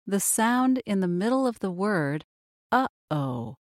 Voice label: glottal stop; ipa pronunciation; less common sounds